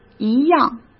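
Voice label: "一样", Mandarin Chinese; chinese-flashcards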